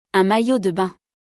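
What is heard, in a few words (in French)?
"Un maillot de bain", French; vocabulaire; le lexique de l'habillement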